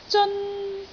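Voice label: "zeon1", Cantonese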